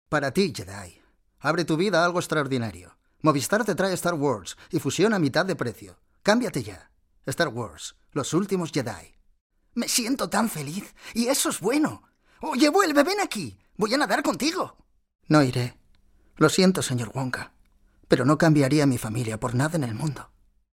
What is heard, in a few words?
Upbeat